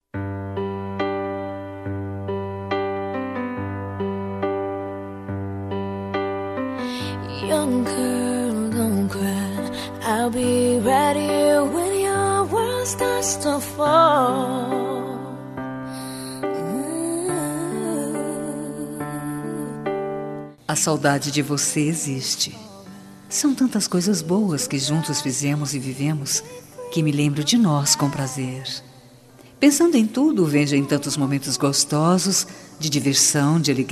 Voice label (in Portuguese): SAUDADES AMIZADE FEM